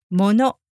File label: "mono" Japanese